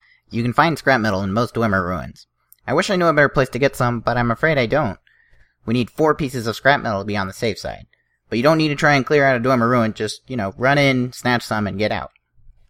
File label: wood elf sample, voice acting